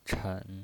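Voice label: "chen", Mandarin Chinese pinyin voice, third tone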